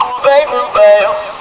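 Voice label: effects talk, Funky Vocals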